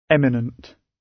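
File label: "eminent", British English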